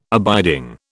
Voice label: "abiding", British